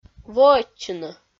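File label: "воӧдчыны", Komi, female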